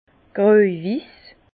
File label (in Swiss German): "grojwiss", Bas Rhin; vin; blanc cassé